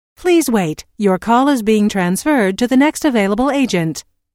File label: Telephone prompt: Please wait - Your call is being transferred to the next available agent, Pre-recorded telephone on-hold message; Recorded Voice Sound Effects